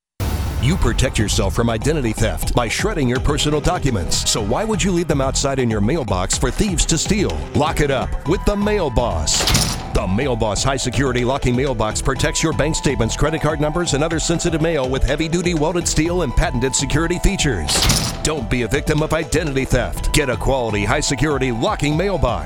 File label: Radio live; CBS Radio Mail Boss